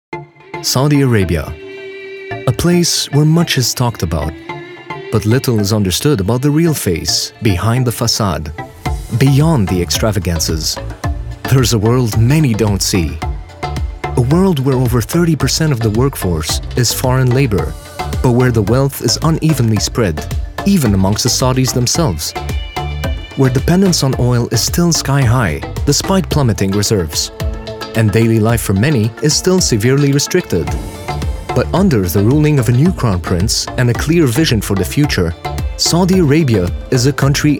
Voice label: english documentaries

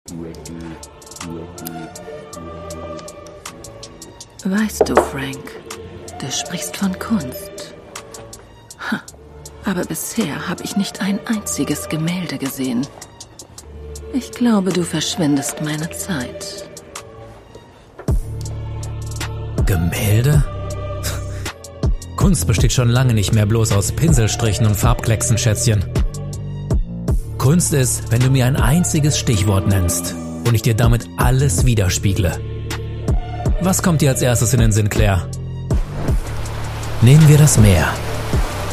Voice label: german animation

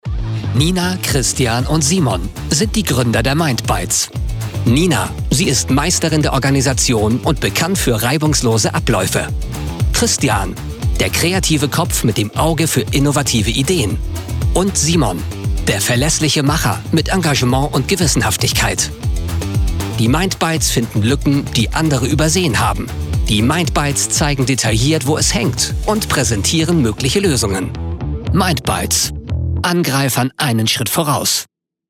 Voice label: german corporate videos

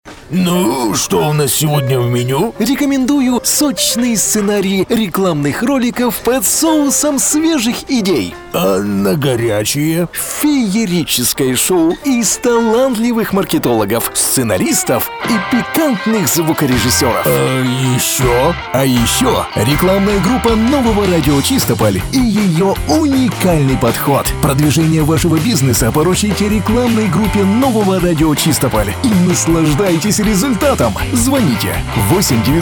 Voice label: russian promos

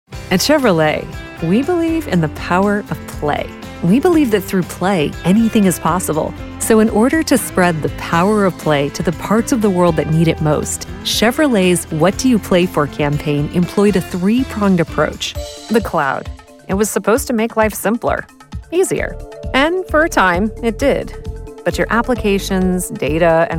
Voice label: english american corporate videos

